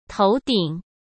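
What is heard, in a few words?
"头顶", Mandarin Chinese; hsk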